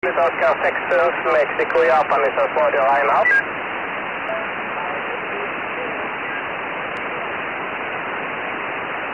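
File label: SSB, 59 fast qsb, 432 MHz, amateur radio; JO65MJ 592; NAC in some qso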